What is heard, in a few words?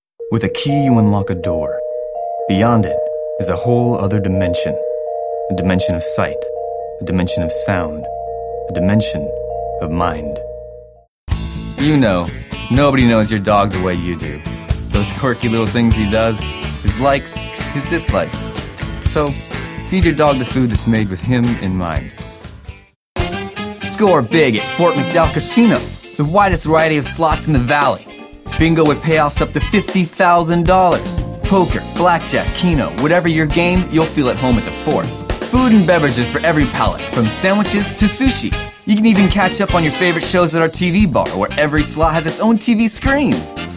voiceover male